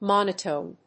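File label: "monotone", English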